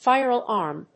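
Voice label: "fire alarm", English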